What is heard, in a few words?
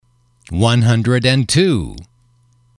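"one hundred two", English, male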